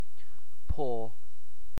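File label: "paw", British English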